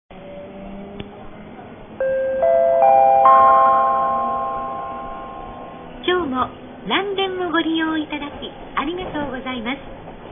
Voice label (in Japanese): 車内チャイム 車内放送; 京福電気鉄道; 普通; 四条大宮行き普通。2007年7月; 嵐山発車後